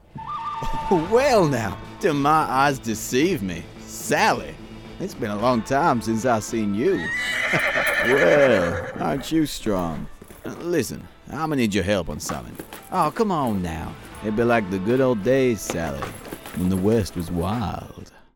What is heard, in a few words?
Cowboy